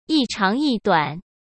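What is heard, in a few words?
"一长一短", Mandarin Chinese; hsk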